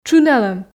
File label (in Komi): "тшыналӧм", Komi